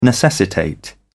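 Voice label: "necessitate", British English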